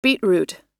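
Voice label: "beetroot" US English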